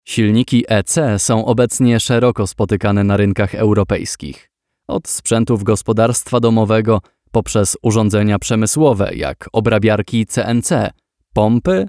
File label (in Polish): instruktaż; vts kurtyny